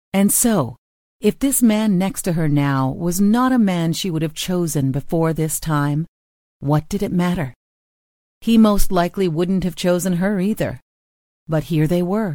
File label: english american audiobooks